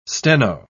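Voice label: "steno-", British English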